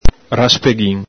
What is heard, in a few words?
"raspeghin", Venetian